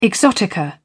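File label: "exotica", British English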